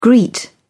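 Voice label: "greet", British English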